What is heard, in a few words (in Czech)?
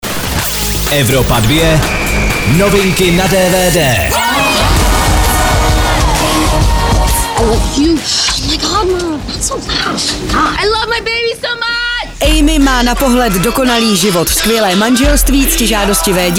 dvd news; evropa2; matky na tahu